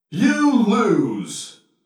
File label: you lose, Video Game Announcer